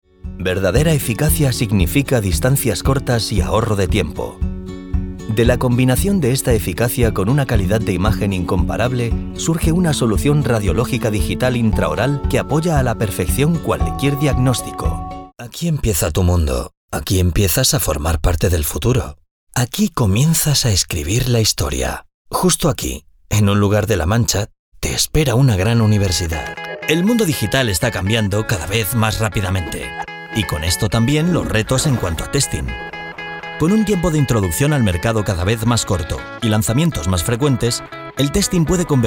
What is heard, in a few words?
corporate video